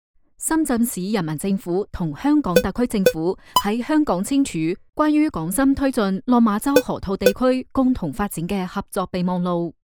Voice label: 粤语新闻; 深港科创

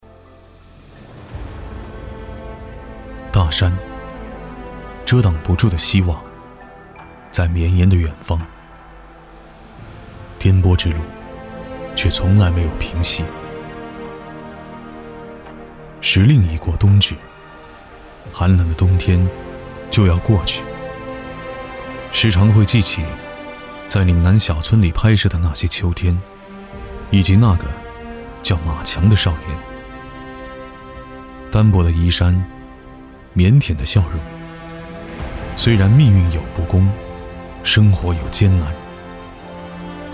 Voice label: 记录中年人物类

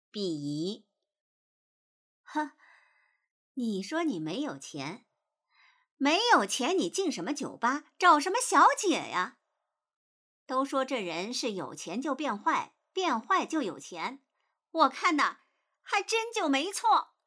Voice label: 鄙夷

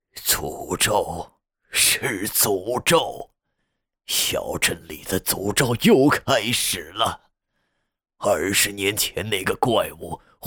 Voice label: 老人

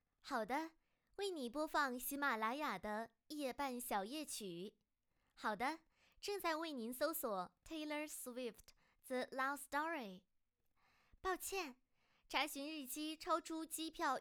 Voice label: 语音助手

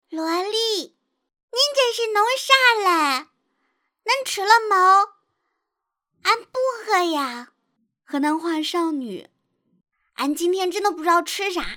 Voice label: 方言河南话版本萝莉少女中年妇女男童

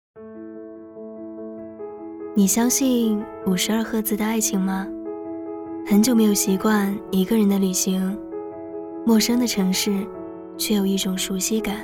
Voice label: 旁白文艺

